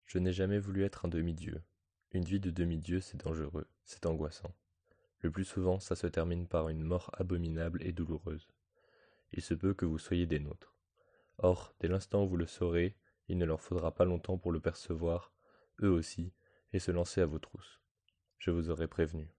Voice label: French, Male

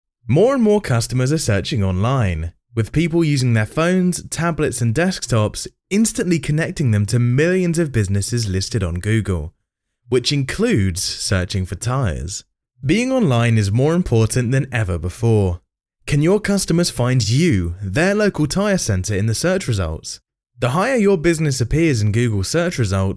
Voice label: English (UK), Male

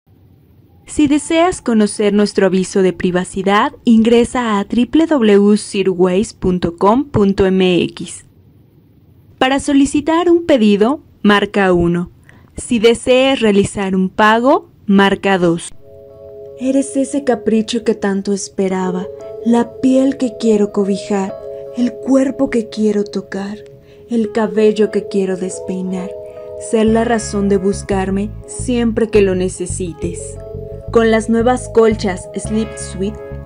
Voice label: Spanish (LA), Female

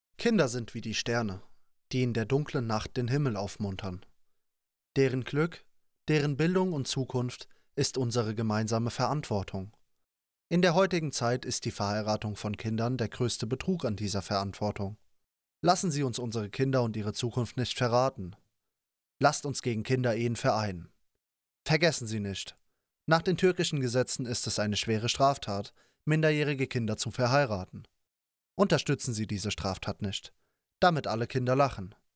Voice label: German, Male

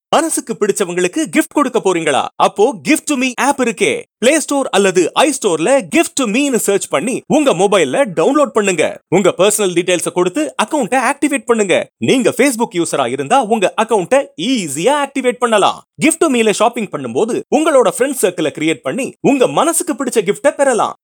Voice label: Tamil, Male

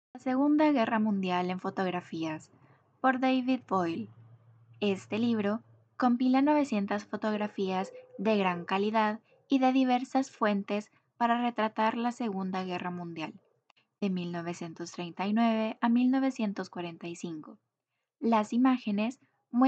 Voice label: Spanish (LA) Female

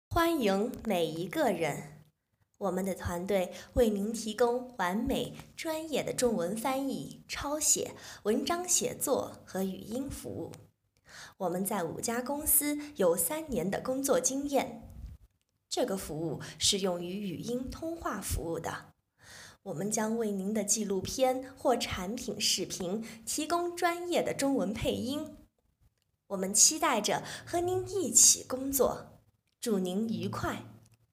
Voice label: Chinese, Female

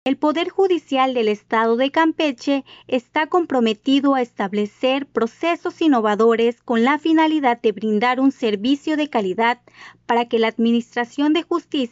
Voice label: Spanish (LA), Female

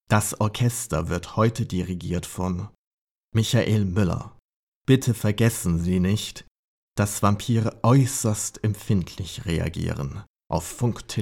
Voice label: German Male

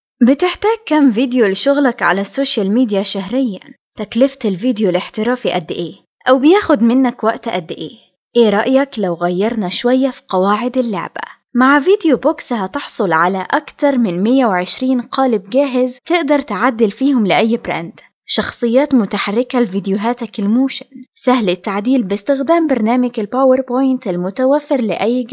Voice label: Arabic, Female

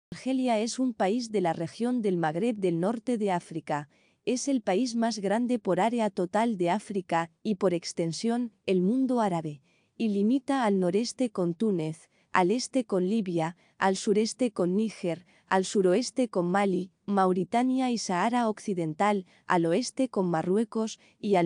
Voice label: Spanish (LA); Female

